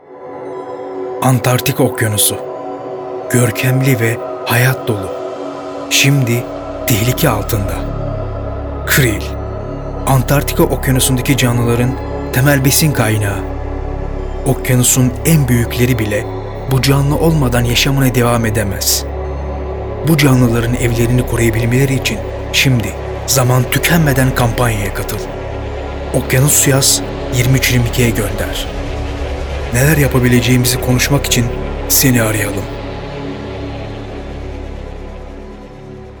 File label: turkish documentaries